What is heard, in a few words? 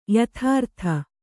"ಯಥಾರೀತಿ", Kannada